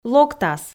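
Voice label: "локтас", Komi, female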